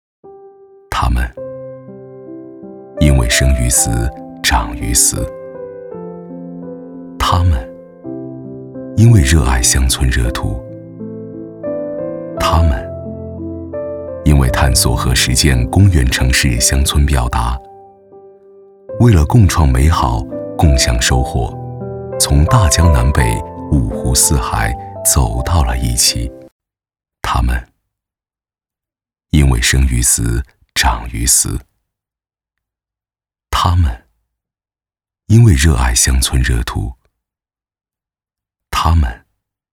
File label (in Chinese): 男 旁白; 中秋国庆成都文旅西来乐章